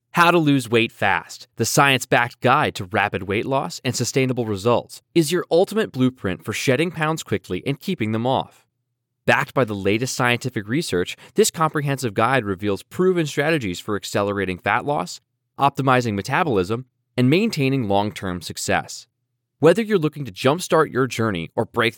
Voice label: young male voice talent with a professional, articula